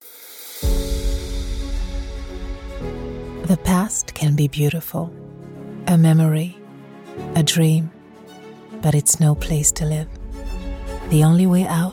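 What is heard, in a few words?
French, Accented English; GoLocalise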